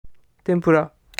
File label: "tempura", Japanese